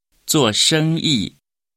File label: "做生意", Chinese